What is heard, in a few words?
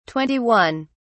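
"twenty one", English, British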